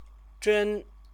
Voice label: "zhen", Chinese; 真; really; adverb

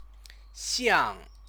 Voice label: "xiang", Chinese; 象; to be like; verb

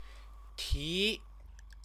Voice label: "ti", Chinese

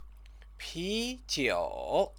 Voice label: "pijiu", Chinese; 啤 酒; beer; noun

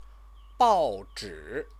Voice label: "baozhi", Chinese

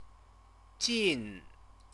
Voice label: "jin", Chinese

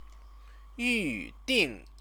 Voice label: "yuding", Chinese; 预 订; book; verb

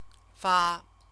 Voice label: "fa", Chinese; CTCFL; University of Oxford

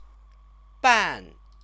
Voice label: "ban", Chinese; 半; half; number word

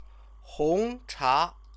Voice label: "hongcha", Chinese

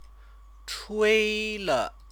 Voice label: "chuīle", Chinese; 吹 了; broken up [relationship] verb

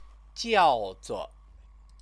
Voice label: "jiaozuo", Chinese

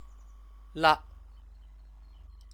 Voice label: "la", Chinese; 啦; [了 + 啊]; exclamation

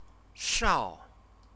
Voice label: "shao", Chinese

